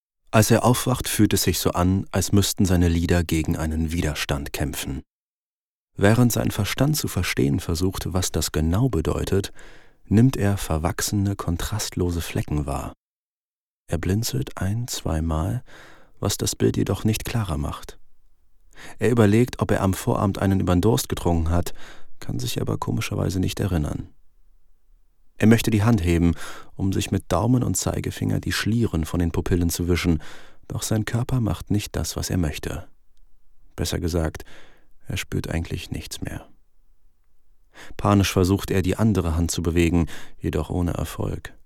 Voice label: Hoerbuch

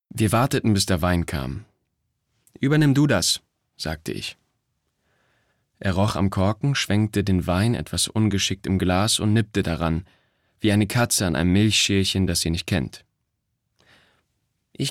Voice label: Erzaehlung

